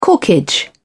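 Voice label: "corkage", British English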